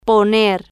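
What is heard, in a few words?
"poner", Spanish, female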